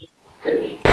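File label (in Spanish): Voice Acting, Spanish, female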